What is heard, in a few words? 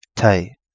Kapingamarangi; ocean shallow